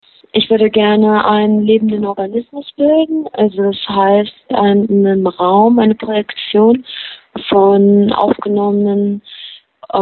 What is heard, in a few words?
Voicemail